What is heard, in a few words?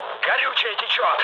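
tank damaged, mood low, Russian; War Thunder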